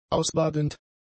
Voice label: "ausbadend", German; adjectives